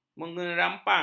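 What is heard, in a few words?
"menyerampang", Malay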